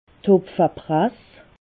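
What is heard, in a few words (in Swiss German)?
"hopfeprass", Alsatian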